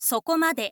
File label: info lady "sokomade"